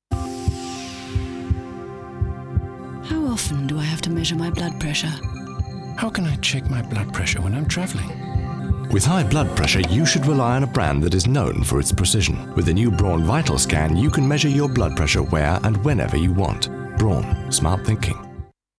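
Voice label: uk Englisch, British English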